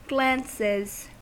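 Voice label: "glances", US English; pronunciations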